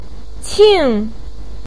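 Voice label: "qing", Chinese pinyin, fourth tone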